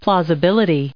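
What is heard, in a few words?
"plausibility", us English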